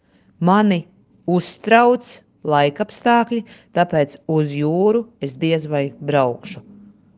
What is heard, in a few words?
latvian; whisper